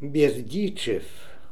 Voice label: "Berdichev", Beelzebub pronounciations; Fourth Way